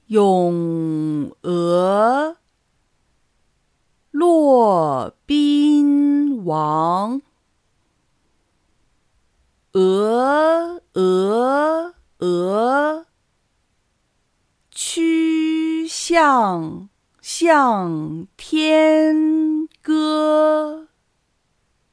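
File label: Speech Contest Yonge slow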